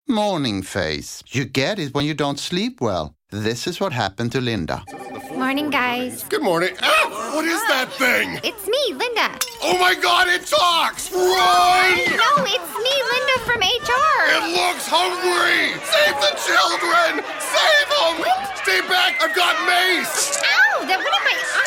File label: Radio Spot; IKEA; 'Morning Face'